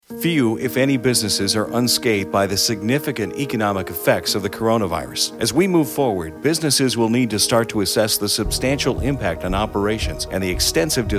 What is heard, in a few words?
conversational read; cohnreznick